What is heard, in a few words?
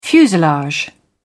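"fuselage", British English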